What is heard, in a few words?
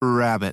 slow rabbit, American; American IPA phonic